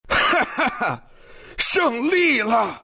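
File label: win, Chinese; Chen; Dota 2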